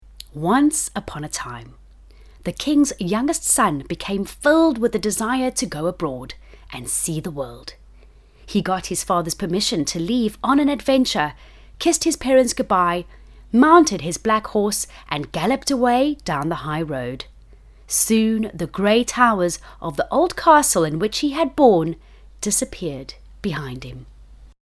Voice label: Fictional Narration